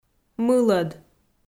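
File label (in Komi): "мылӧд", Komi, female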